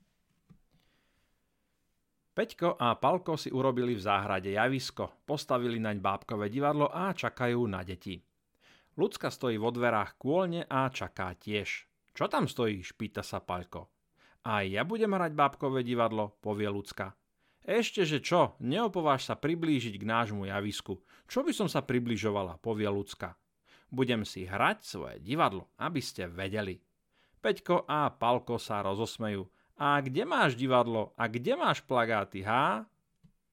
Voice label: Fairy tale, male voice